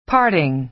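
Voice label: "parting", American English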